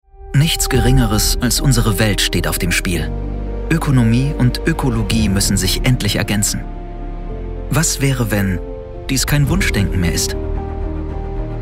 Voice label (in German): Imagefilm; Rittal